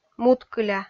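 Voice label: "муткыля", Komi, female; kpv audio dict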